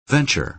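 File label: "venture", American English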